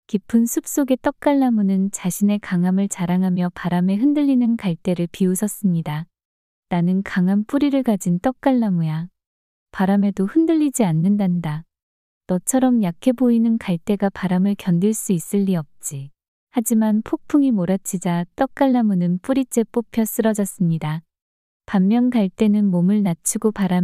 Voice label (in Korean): 이야기 여자; 떡갈나무와 갈대